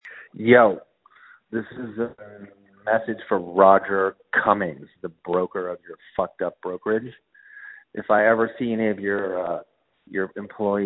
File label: voicemail; San Diego Agent